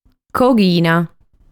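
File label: "Coghina", Sardinian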